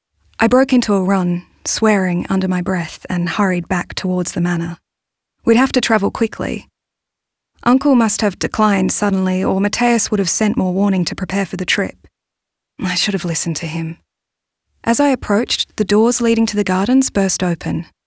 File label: narration Australian accent